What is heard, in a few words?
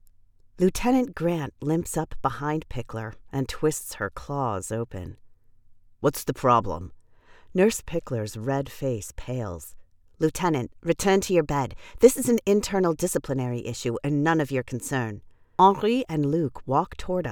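Audiobooks, English voice over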